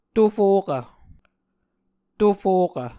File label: "tofokv", Muscogee